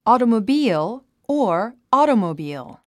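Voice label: "automobile", English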